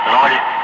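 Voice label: "5", spoken; Ka-50; Wingman; Digits; DCS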